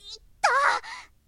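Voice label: "ita", Japanese, boy; shonen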